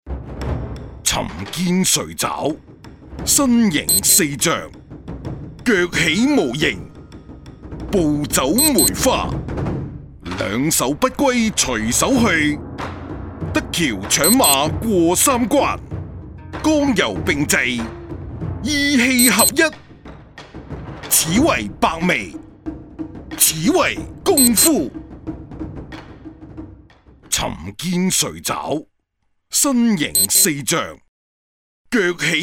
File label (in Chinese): 男粤 粤语 男 游戏角色 功夫; 功夫白眉